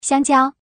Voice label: slot fruits female